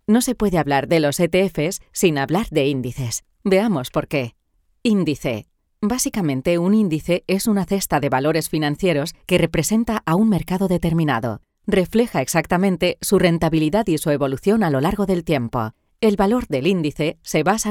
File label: Spanish e-learning